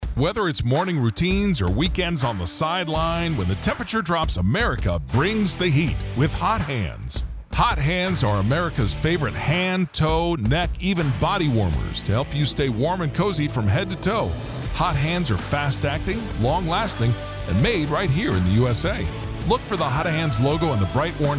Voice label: male; Acoustic Storm; USRN-FM; kkcl-am